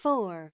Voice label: lady; Samsung; GT-B7320 Omnia PRO ATT